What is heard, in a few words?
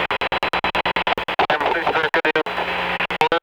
TECHNO VOCALS 140; Techno2